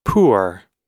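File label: "poor", American English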